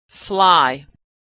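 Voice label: "fly", American English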